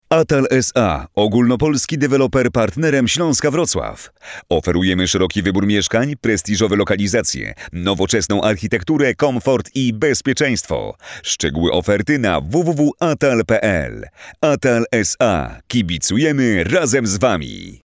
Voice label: polish male